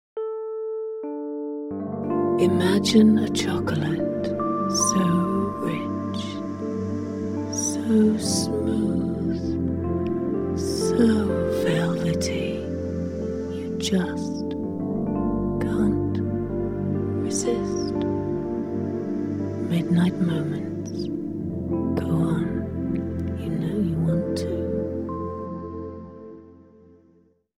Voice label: Velvety, sensuous, luxurious TVC, Commercial, English